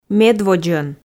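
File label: "медводзӧн", Komi, female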